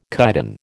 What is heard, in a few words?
"chitin", American